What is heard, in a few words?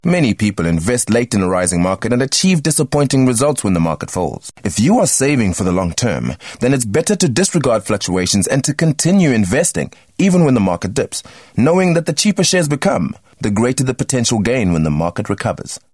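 South African English, male, corporate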